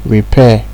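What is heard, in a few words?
"repair", Nigerian Pidgin, male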